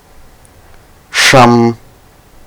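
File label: shsham, Malayalam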